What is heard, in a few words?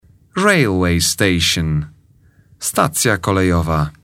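"railway station", English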